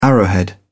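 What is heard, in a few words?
"arrowhead", British English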